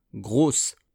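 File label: "grosse", French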